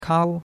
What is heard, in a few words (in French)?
"kao1", chinois; Cours-de-Chinois